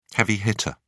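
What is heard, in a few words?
"heavy hitter", British English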